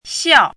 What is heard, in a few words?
"xiào", hanzi